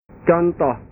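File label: "contoh", Indonesian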